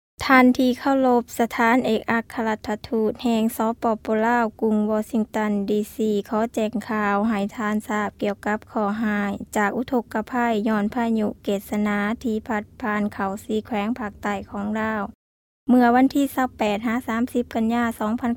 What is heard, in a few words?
Lao